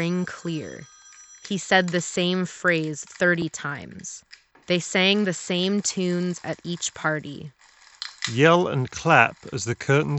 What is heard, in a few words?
Ideal microphone sample, office; Steelseries Arctis Nove 5x Wireless